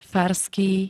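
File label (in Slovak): "farský", Slovak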